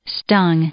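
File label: "stung", English, female; verb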